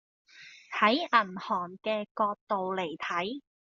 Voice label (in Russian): Гонконгский